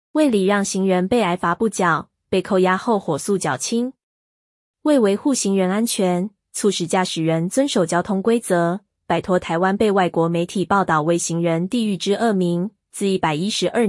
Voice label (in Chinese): ai語音-女; 未禮讓行人被挨罰不繳-被扣押後火速繳清